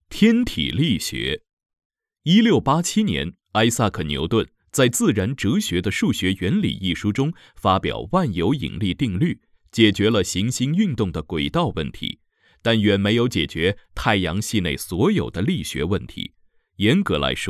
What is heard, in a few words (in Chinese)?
科学解说讲解课件天体力学样音